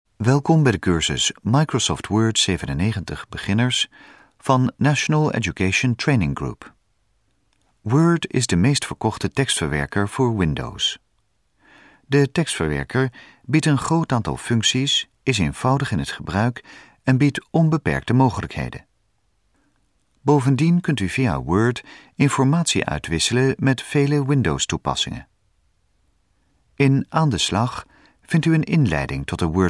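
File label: dutch narration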